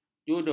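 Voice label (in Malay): "judo", Malay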